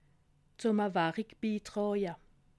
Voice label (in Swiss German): "warik", alsace